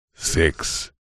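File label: "six", radio production male voice processed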